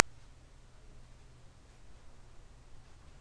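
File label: "telephone", spoken word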